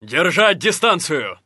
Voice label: Neutral